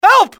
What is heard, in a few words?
"help", english male; XBOX; civ